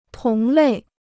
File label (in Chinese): "同类", Chinese